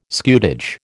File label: "scutage", American